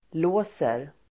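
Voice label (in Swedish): "låser", Swedish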